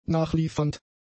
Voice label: "nachliefernd", German; adjectives

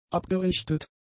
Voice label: "abgerichtet", German; adjectives

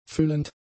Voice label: "füllend", German; adjectives

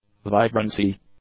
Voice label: "vibrancy", English; nouns

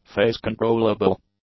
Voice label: "phase-controllable", english; adjectives

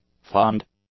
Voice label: "fond", english; adjectives

